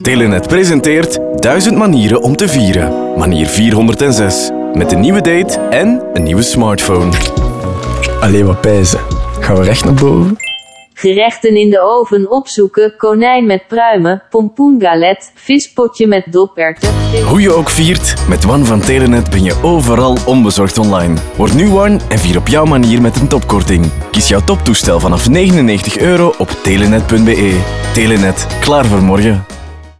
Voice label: radio voice assist